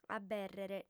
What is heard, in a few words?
"abèrrere", audiofem